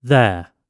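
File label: "there", British English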